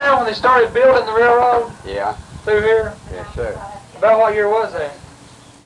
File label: Oral history with 89 year old male Whick Kentucky, american english dialect recordings